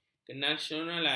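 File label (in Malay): "kenasionalan", Malay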